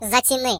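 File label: "Watch this", British English; hedgewars; Default uk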